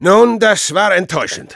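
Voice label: jeers, German; Spy; "Nun, das war enttäuschen!"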